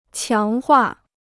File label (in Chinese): "强化", Chinese